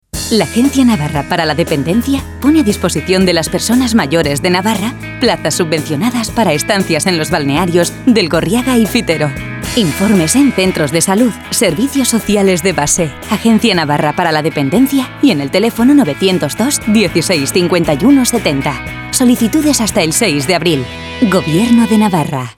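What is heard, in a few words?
spanish female commercial; voice demos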